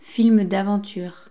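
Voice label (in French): "film d aventure", French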